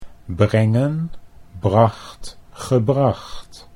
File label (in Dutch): brengen bracht gebracht, Dutch